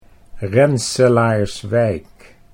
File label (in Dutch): "Rensselaarswijk", Dutch